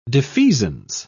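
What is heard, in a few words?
"defeasance", British English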